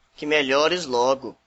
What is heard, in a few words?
get well soon, portuguese br